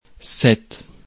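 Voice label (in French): "sept", French; afrikalan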